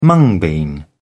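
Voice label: "mung bean", British English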